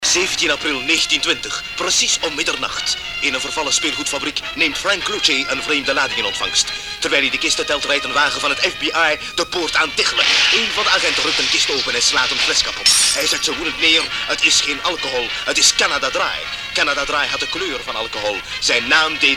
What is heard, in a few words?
commercial